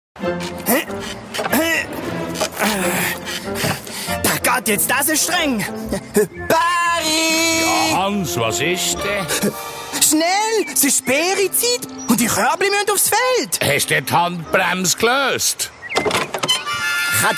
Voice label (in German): Beeren SG-Dialekt